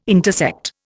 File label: "intersect", Indian